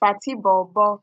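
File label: "fatibobo", Nigerian Pidgin, female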